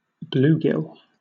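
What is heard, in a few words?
"bluegill", British English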